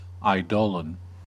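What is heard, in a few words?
"eidolon", American English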